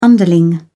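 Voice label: "underling", English, British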